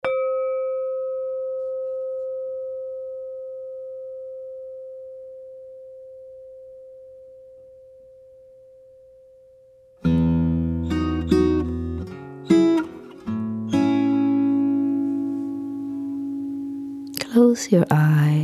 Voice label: Five Minute English Guided Meditation; Craig Hospital; meditation for individuals with a spinal cord or brain injury